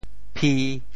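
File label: "phi1", Teochew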